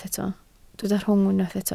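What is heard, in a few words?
"rhwng" Welsh, spoken word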